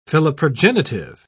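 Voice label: "philoprogenitive", British English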